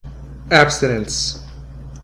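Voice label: "abstinence", US English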